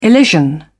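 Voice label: "elision", British English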